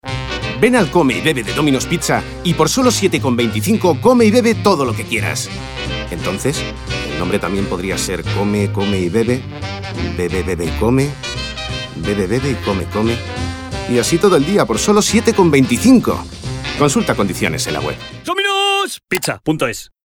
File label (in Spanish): Cuña Radio; DOMINOS; Come y Bebe